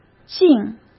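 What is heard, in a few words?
"敬", Mandarin Chinese; chinese-flashcards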